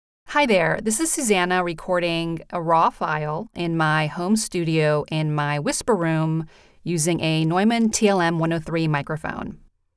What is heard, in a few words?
Studio Sample